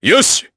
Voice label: Vox Happy, jp; Chase; kingsraid